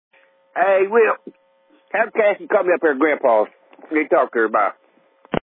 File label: Voicemail